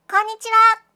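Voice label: "konnichiwa", Japanese; aisatsu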